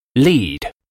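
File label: "lead", English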